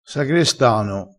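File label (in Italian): "sagrestano", vocabolario dialettale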